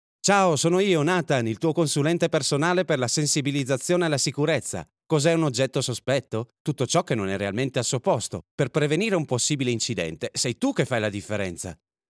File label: male character